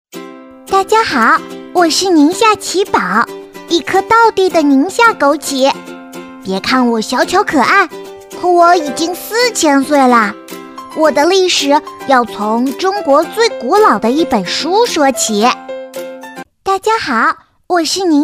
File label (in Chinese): 女童; 宁夏杞宝